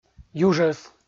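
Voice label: "южӧс", Komi, male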